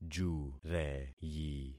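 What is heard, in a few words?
"jureyi", concatenated syllables; Affixing experiment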